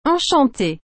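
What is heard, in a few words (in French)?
"enchantée", French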